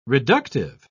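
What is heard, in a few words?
"reductive", English, British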